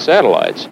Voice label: LoFi Words, LoFi Vocals